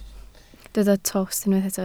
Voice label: "tost", Welsh